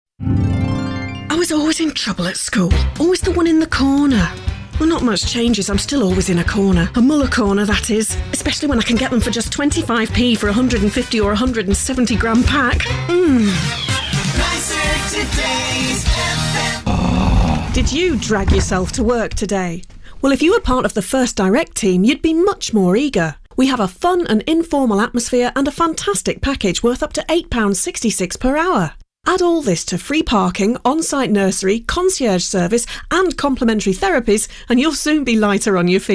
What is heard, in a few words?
straight commercials